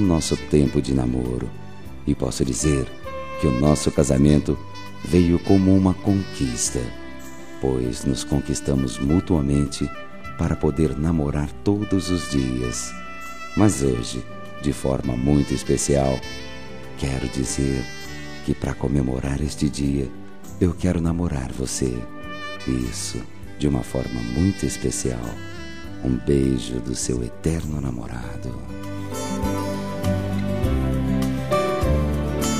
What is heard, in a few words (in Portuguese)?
Namorados pra Recem Casados M